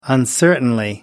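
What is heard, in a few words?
"uncertainly", British English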